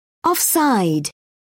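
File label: "offside", British English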